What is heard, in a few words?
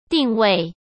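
"定位", Mandarin Chinese; chinese-flashcards; hsk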